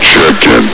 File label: "checking", hgrunt; hl2